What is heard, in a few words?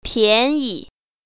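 "pian2yi0", Mandarin Chinese Tone Pair Drills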